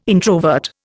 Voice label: "introvert", Indian

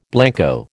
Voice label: "blanco", American

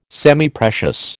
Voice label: "Semiprecious", American

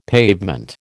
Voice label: "pavement", American

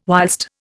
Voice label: "whilst", Indian

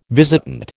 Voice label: "visitant", American

